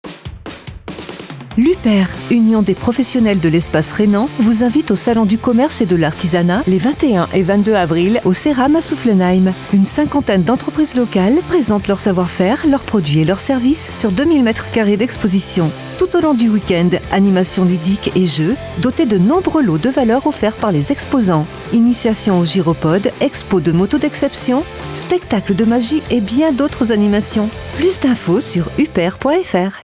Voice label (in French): SPOT PUB RADIO SALON